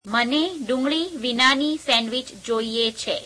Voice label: gujarati I want a sandwich without onions

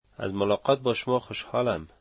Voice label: dari nice to meet you

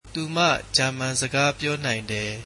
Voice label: she can speak German, Burmese

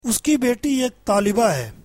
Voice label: her daughter is a student, urdu

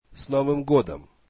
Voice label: russian Happy new year

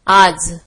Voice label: marathi today

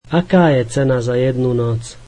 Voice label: slovak What is the charge per night

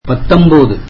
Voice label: tamil nineteen

